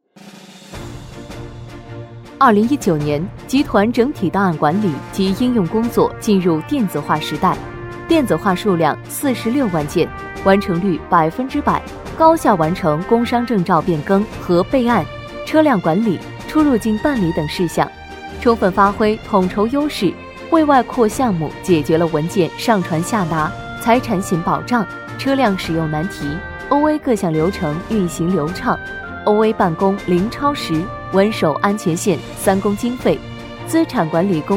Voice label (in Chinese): 女 专题正式 企业介绍